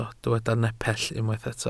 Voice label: "nepell", welsh

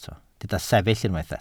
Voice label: "sefyll", welsh

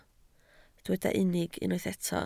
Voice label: "unig", Welsh

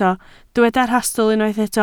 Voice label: "rhastl", Welsh

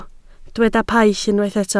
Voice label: "paill", Welsh

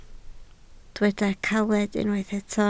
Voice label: "caled", Welsh

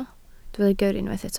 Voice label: "gyrru", Welsh

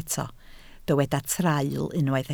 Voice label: "traul", Welsh

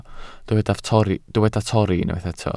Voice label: "torri", Welsh

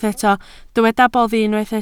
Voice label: "boddi", Welsh

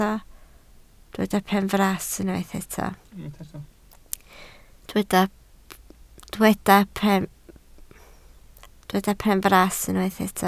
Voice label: "benfras", Welsh

